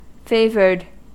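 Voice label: "favoured", American English; pronunciations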